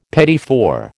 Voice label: "petit four", American